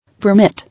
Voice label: "Permit", English